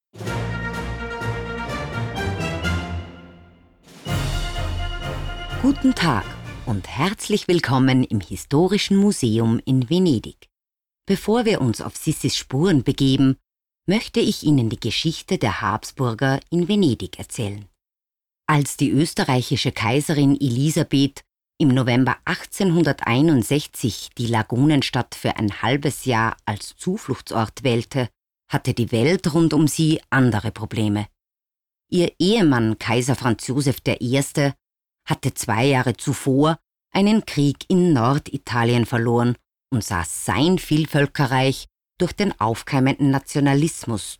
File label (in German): Audio Guide Deutsch